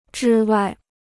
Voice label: "之外", Chinese

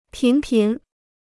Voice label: "频频", Chinese

